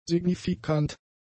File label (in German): "signifikant", German; adjectives